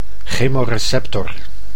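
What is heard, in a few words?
"chemoreceptor", Dutch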